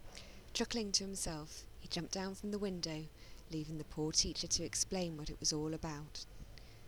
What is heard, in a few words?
female